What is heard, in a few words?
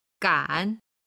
"gan3", Chinese